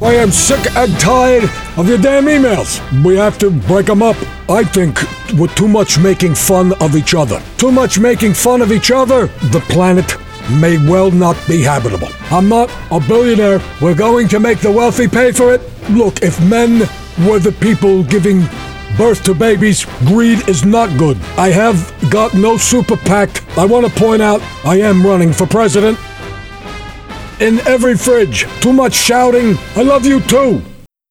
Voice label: Presidential Ad; Bounce

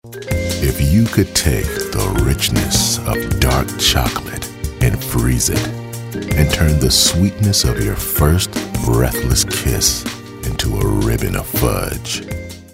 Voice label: Smooth

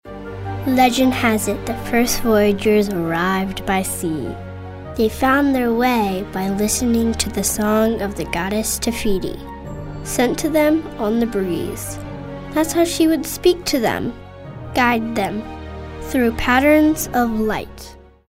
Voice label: storyteller

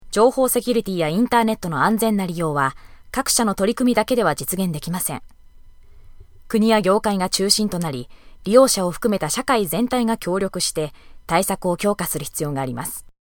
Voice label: for elearning

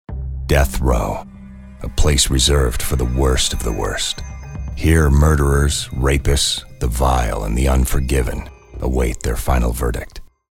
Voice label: Ominous Gravitas; Death Row

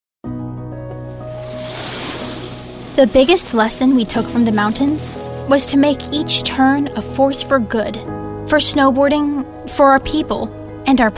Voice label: thoughtful

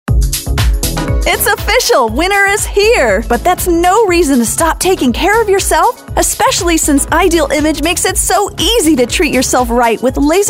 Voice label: High Energy

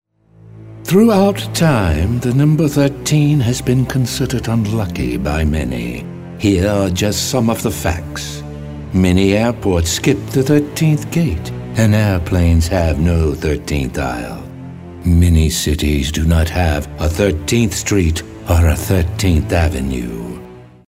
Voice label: ominous

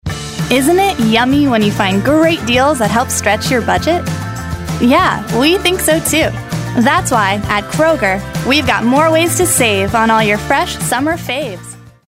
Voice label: friendly

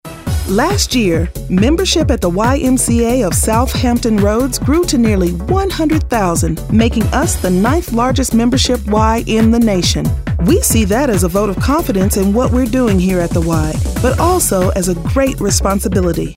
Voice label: informative

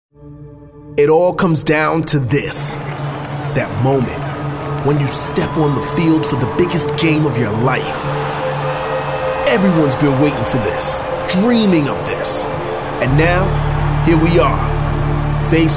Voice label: Epic

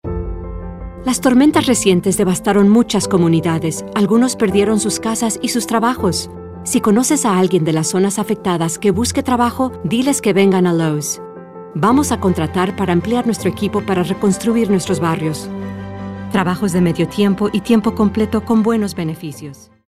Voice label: warm

